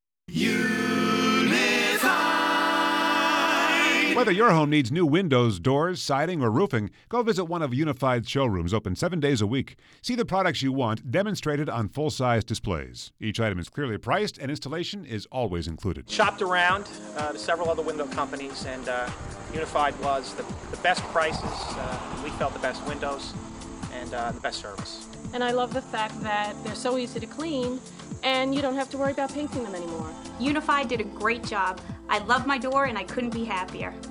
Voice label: 60 second commercial